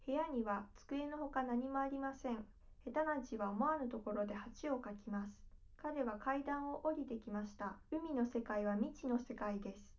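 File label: female, live recording, 250 ms, 5 cm; SiSEC11; underdetermined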